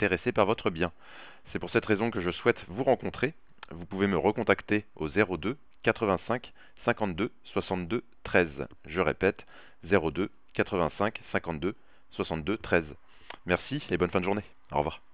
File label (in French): Demo message vocal immo